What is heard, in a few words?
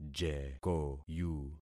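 "jekoyu", concatenated syllables; Affixing experiment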